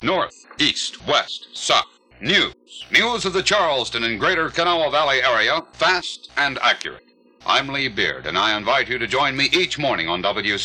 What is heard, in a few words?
News promo; News